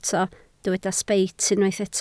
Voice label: "sbeit", Welsh